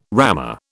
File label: "rammer", British